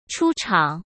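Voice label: "出厂", Mandarin Chinese; chinese flashcards; hsk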